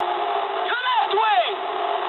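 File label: English left wing mood high; War Thunder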